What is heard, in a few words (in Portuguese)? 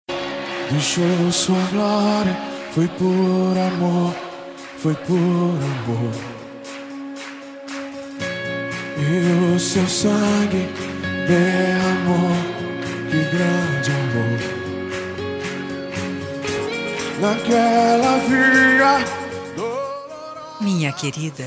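aniversario filha evangelico feminino, telemensagem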